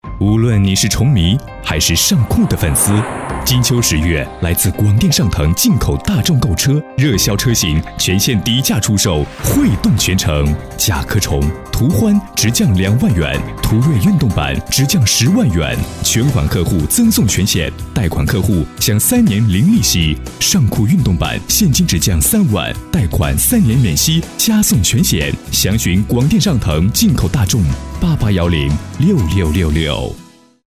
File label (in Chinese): 广告 洋气 质感, 国语男声配音; 进口大众; 大气沉稳